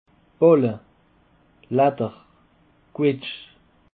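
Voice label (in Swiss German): "balle", Alsatian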